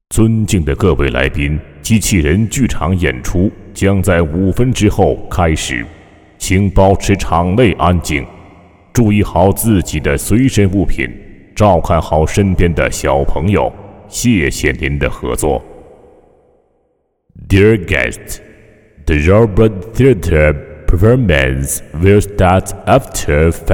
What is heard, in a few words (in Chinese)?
男 机器感; 机器人演出前注意事项讲解